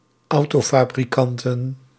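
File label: "autofabrikanten", Dutch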